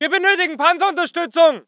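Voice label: voice german infantry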